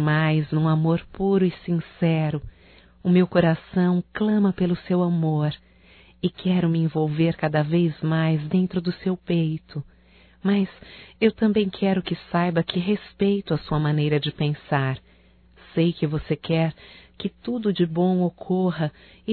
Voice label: SUA INSEGURANÇA(F), Românticas fem

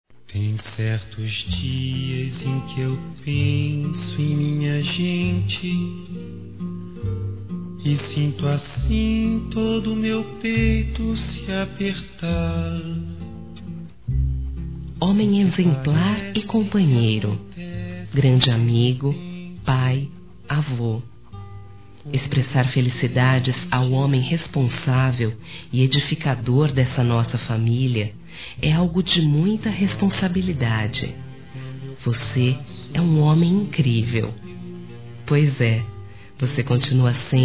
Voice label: Aniversário Avô Feminino

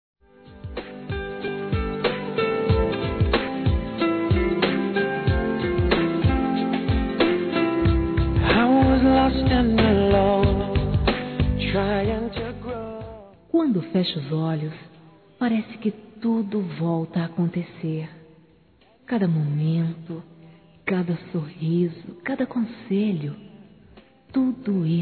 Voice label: BONS MOMENTOS JUNTOS, fem; Telemensagens; Telemensagens 2017

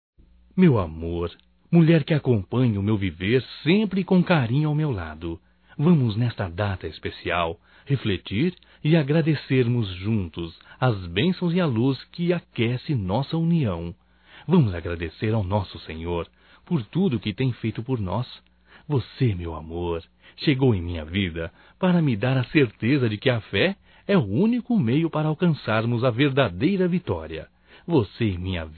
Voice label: Natal e Ano Novo Romântico Masculino; Telemensagens 2017

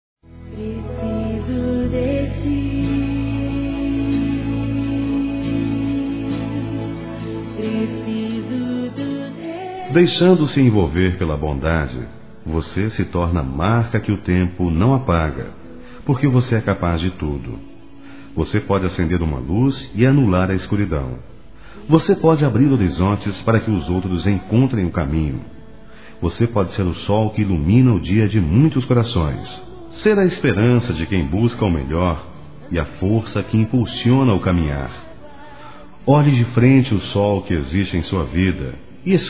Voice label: Aniversário Pastor Masculino; ANIV. Evangelico

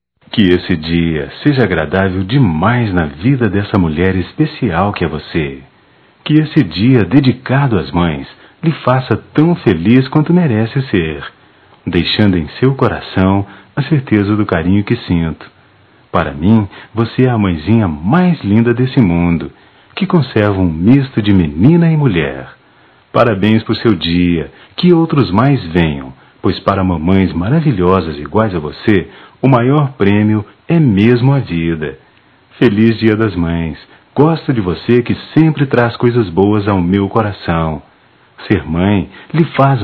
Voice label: Romântica, masc; Dia dos Pais

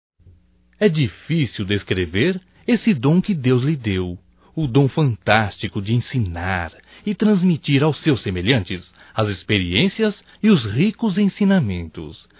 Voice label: Dia Professor Masculino, Telemensagens, Só Voz; DATAS COMEMORATIVAS

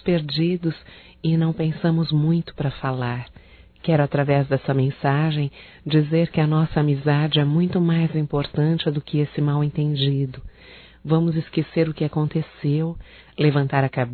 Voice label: ACEITO DESCULPAS AMIGO (F), Telemensagens, Românticas, fem

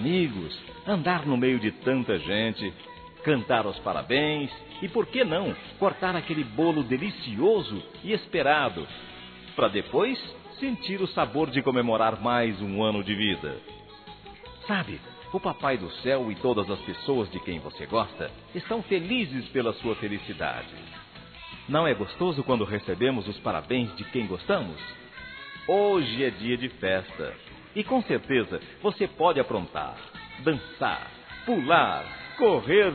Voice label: Criança Geral Masculino

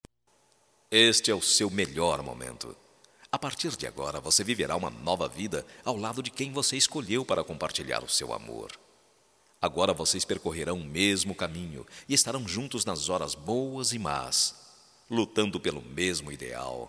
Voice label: CASAMENTO M, Só Voz, masc; Telemensagens; Telemensagens 2017

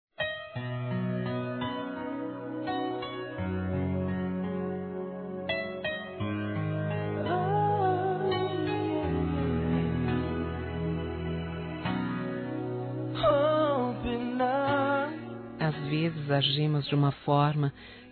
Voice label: DESCULPAS ROMANTICA-F; Telemensagens; fem; Telemensagens 2017

